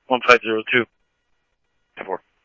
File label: Scottsdale Police, scanner audio; ThompsonPeakHigh; SCTSDLE PD - PD2